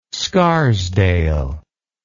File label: "scarsdale", English